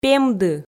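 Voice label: "пемды", Komi, female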